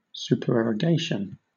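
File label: "supererogation", British English; pronunciations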